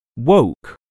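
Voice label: "woke", English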